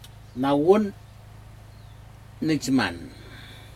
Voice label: nawun nijman, arm slow, Aneityum